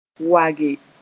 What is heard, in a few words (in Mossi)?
"wage", Mooré